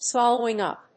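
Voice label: "swallowing up", English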